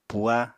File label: "puha", Apatani